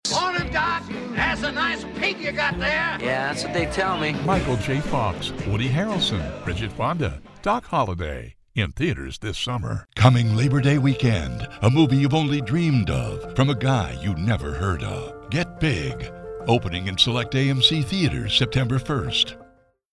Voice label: Trailer; Doc Holiday Get big